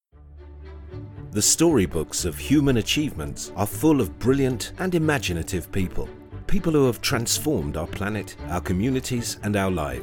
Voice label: english british narration

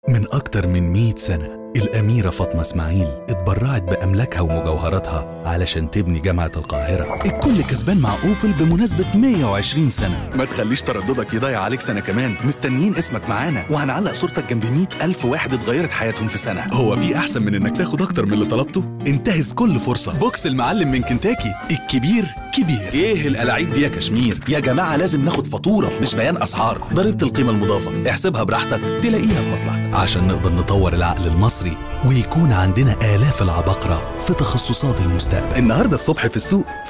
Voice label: arabic commercial

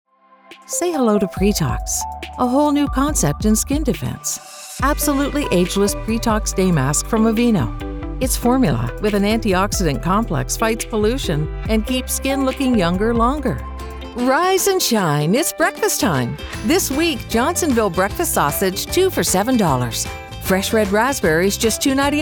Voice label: english canadian commercial

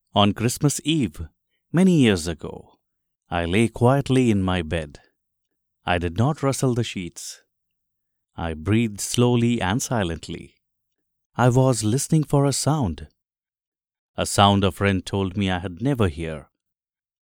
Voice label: english indian narration

